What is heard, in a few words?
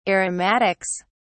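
"aromatics", British English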